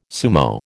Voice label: "sumo", American